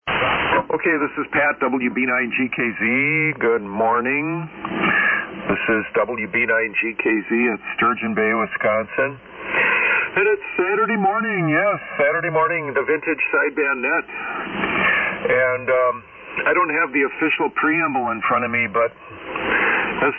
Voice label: Net Control Kenwood TS-830S MC-50 Mic & AL-80B Amp 80m Loop Antenna